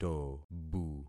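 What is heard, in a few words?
"tobu", concatenated syllables; Affixing experiment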